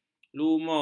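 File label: "lumur", Malay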